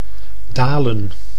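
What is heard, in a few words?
"dalen", Dutch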